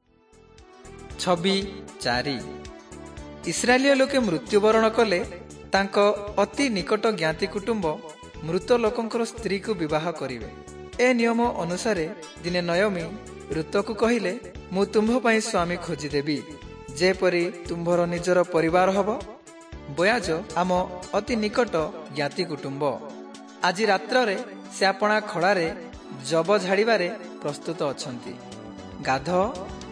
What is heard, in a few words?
southern oriya; servants of god